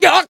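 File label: Voice damaged Japanese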